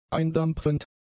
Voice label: "eindampfend", German; adjectives